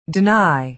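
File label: "deny", American English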